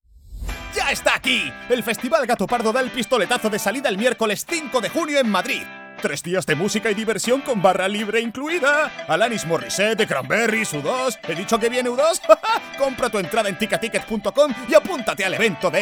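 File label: comercial, Spanish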